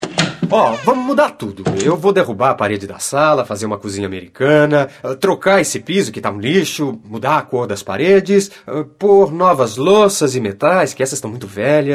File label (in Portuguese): Português